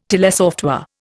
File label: "telesoftware", Indian